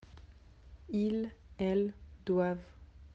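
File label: "devoir", French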